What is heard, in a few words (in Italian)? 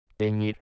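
"tenir", spagnola; tinger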